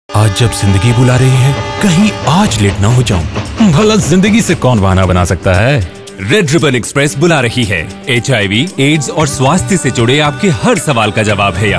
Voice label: Radio Hindi